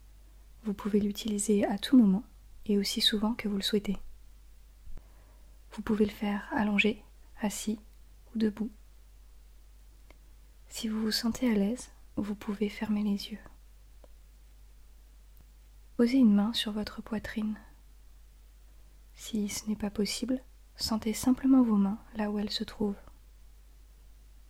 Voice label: Meditation Micro check in, FR